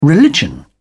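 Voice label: "religion", British English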